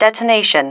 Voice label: "detonation", female